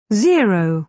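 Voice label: "zero", British English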